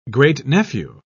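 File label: "great-nephew", British English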